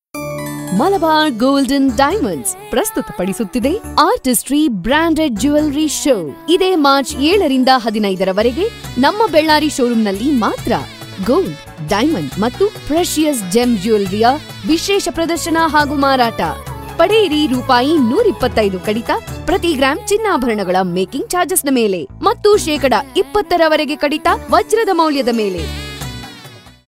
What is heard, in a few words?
Ad Kannada